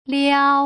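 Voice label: "liao", Putonghua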